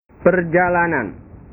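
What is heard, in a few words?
"perjalanan", Indonesian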